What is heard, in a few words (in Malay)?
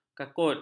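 "kakut", Malay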